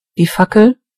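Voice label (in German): "torch", German; gcompris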